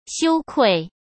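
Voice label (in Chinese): "羞愧", Mandarin; chinese flashcards; hsk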